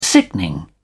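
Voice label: "sickening", British English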